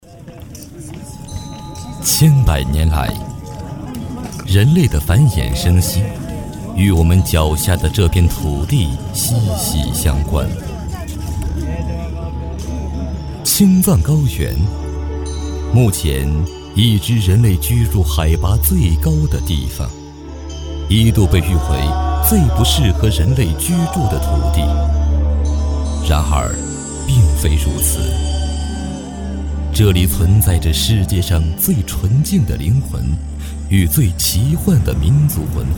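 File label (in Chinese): 国语 男声 纪录片 低沉 大气浑厚磁性; 青藏高原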